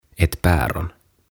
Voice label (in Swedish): "Ett Päron" "Päärynä"